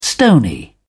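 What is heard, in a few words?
"stony", English, British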